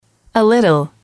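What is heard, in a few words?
"a little", English, female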